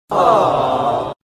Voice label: Ahhaaaaa Sound effect